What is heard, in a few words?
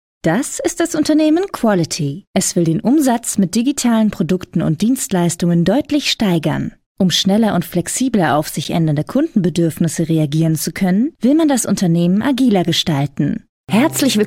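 German, female; lektoring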